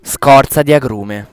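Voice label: "zest", Italian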